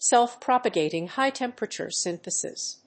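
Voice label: "Self-propagating High-Temperature Synthesis", English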